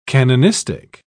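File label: "canonistic", British English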